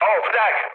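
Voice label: damaged aa, mood med, Turkish; speech; War Thunder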